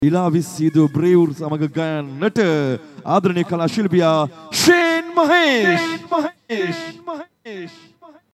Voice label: Intro, Announcer; Live In Panadura